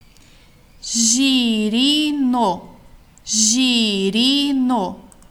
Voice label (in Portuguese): voz girino